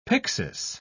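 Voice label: "pyxis", British English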